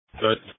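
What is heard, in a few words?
Police Patrol Dispatch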